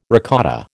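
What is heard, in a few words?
"ricotta", American English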